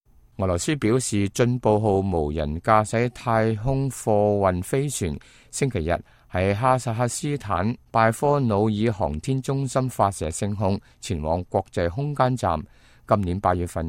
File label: cantonese, news